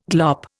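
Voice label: "glorify", Indian; Dictionary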